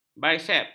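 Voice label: "biseps", Malay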